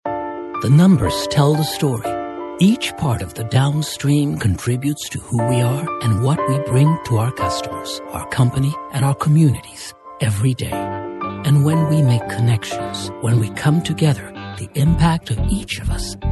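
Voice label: corporate english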